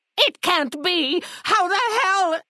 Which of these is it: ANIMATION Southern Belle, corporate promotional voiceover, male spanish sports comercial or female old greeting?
female old greeting